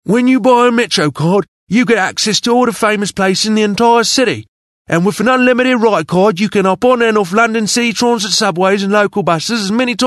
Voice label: English Character Old British Man